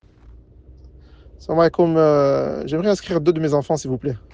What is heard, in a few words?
voice message